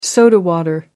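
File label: "soda water", British English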